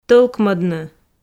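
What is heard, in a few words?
"тӧлкмӧдны", Komi, female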